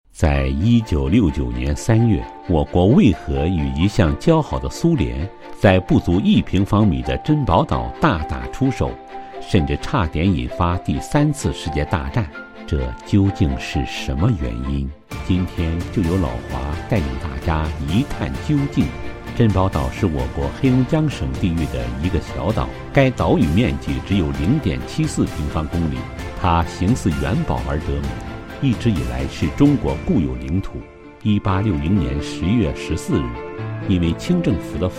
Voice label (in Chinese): 稳重大气